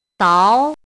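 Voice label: "dáo", Chinese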